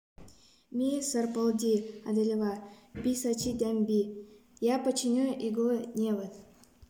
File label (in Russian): Отец дай мне иглу нанайский